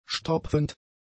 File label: "stopfend", German; adjectives